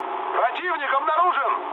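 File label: Russian enemy detected mood med, speech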